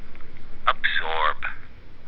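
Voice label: "absorb", USA, male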